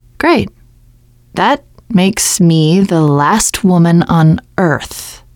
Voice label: generic, teengirl, voice